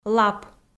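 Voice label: "лап" Komi, female; kpv audio dict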